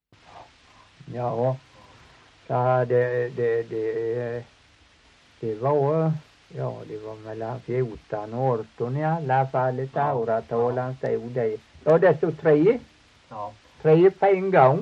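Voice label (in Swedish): Torhamn Blekinge, dialekter; Folkminnen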